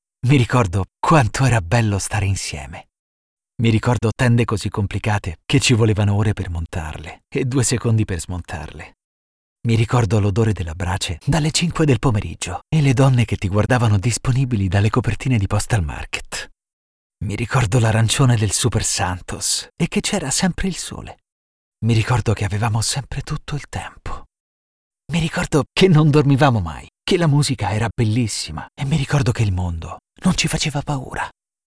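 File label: Italian, male, Acting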